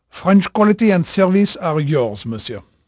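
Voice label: French male, voice; Pirates Of The Caribbean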